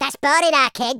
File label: "boring", Madchester